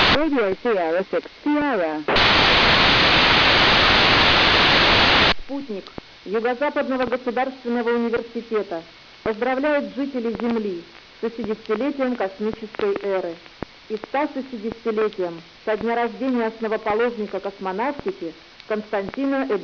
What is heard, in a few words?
russian